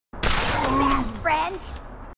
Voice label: grunt; h2dialogue; grunt crazy